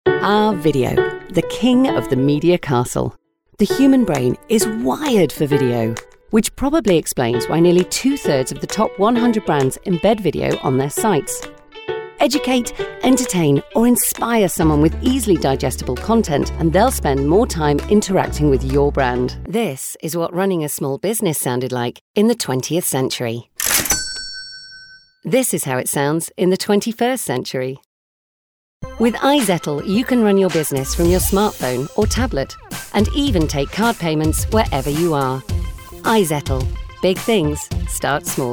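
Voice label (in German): UK English, Variabel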